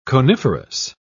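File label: "coniferous", British English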